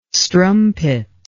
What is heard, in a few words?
"strumpet", English